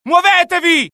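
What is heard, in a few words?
alert, Italian; mohaa; dialogue; sighted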